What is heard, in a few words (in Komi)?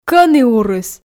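кӧні урыс, Komi, female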